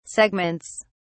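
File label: "segments", British English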